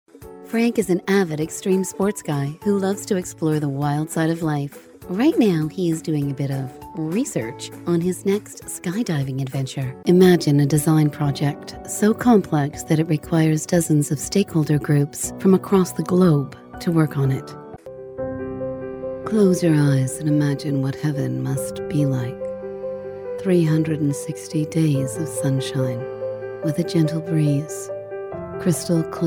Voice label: english us and canada female corporate